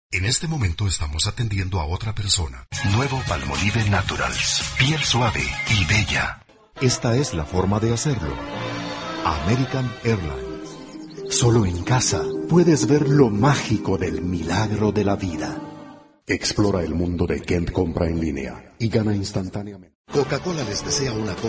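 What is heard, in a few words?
Spanish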